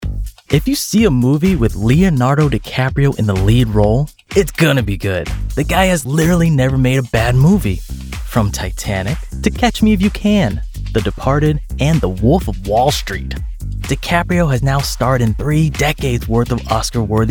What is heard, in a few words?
Narration